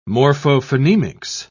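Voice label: "morphophonemics", British English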